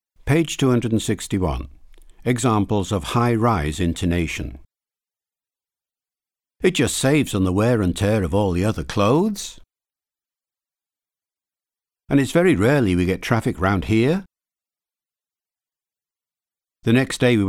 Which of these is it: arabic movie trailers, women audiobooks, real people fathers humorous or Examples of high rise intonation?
Examples of high rise intonation